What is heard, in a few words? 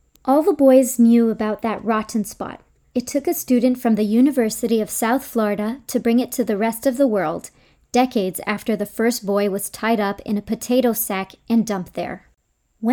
American